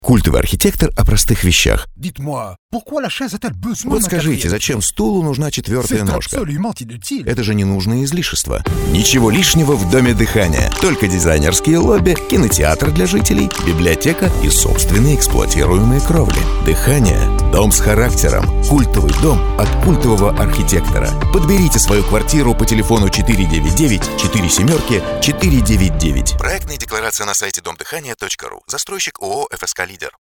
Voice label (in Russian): Аудиореклама; диктор; Аудиореклама для ФСК-Лидер; Звуки Москвы